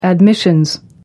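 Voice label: "admissions", British English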